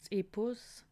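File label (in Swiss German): "epos", Alsatian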